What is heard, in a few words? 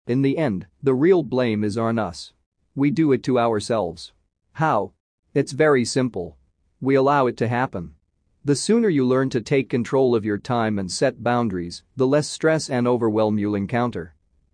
Male; Overwhelm